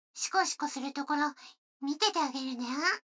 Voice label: 女の子台詞, ASMR

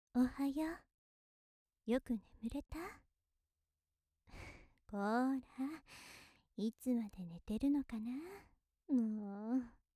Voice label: 優しいお姉さん おはよう

